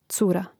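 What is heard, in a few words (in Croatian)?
"cura", Hrvatski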